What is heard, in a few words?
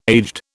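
"aged", British English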